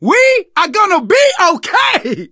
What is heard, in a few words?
hurrah, survivor voice; source engine; left 4 dead 2; manager